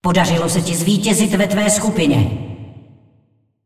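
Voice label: announcer female tournaments win bracket generic, es ar; cs cz; clash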